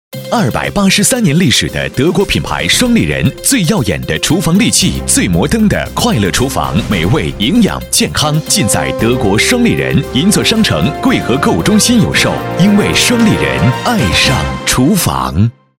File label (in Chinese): 男 广告 活力; 双立人